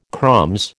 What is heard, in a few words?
"crumbs", American